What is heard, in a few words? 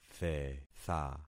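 "thetha", concatenated syllables; Affixing experiment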